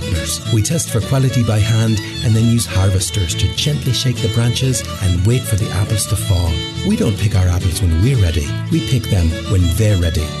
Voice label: Commercial - Irish Cider - Irish Accent; Magners